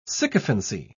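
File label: "sycophancy", British English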